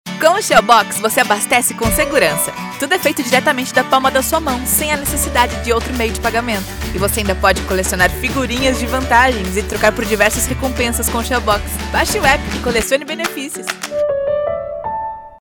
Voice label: corporate presentation young excited upbeat female brazilian portuguese; shell